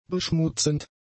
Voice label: "beschmutzend", German; adjectives

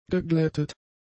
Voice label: "geglättet", german; adjectives

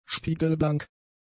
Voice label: "spiegelblank", german; adjectives

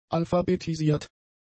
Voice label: "alphabetisiert", German; adjectives

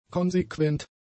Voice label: "konsequent", German; adjectives

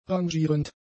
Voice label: "rangierend", German; adjectives

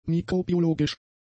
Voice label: "mikrobiologisch", German; adjectives

